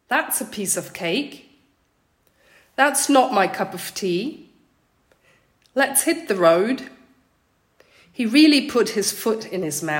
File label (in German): Englisch Redewendungen alle